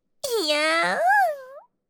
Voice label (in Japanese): いやぁん！, システムボイス; リクエストシステムボイス